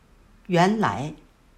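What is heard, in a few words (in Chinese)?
"原來" Mandarin Chinese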